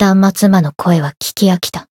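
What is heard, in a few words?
Voice win, Japanese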